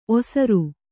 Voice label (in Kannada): "ಓಸರು", Kannada